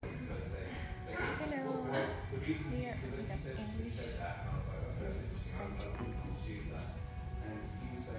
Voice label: english with french accent